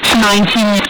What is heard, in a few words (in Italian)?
"si manchi", italia